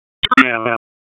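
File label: Sheriff's Office Dispatch 1, p25; WSO DISP 1; wake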